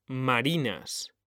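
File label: "marinas", Spanish, male; mekanta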